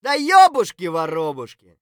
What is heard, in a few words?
mutter; Escape from Tarkov